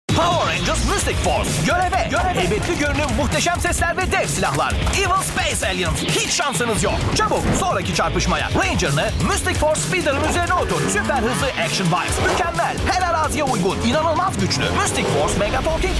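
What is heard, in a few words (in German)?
TV-Spot türkisch; powerrangers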